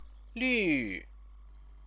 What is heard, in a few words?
"lu", Chinese; 绿; green; stative verb